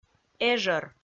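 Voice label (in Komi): "эжӧр", Komi, female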